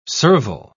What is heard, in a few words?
"serval", pronounce